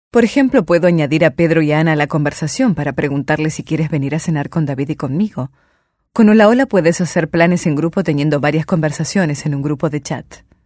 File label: locutora argentina argentinian voiceover; Argentinian voice over artist